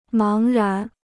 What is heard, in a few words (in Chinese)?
"茫然", Chinese